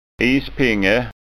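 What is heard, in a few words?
"ispinge", Scanian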